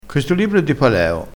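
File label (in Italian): "di chie", dialettale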